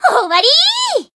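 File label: Voice finish, Japanese; lovina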